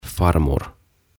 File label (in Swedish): "Farmor" Isoäiti Isän Äiti